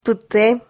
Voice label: "pte", Silabas

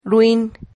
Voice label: "ruin", silabas

